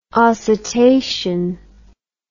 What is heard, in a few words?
"oscitation", English